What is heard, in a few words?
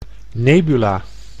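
"nebula", Dutch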